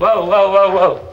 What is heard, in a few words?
"woah woah woah woah", expletives, g-rated swears